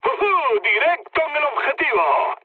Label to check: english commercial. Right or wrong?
Wrong